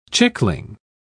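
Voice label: "chickling", British English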